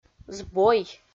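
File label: "збой", Komi-Zyrian, female; audio dict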